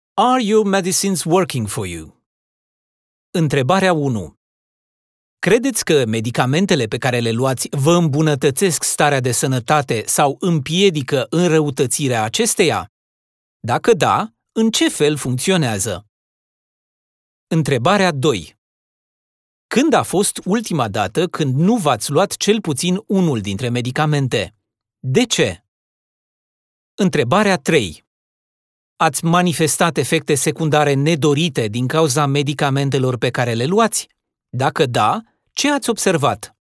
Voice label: GP Checklist Patient Romanian